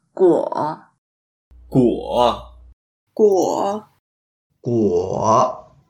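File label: "guo3", Mandarin Chinese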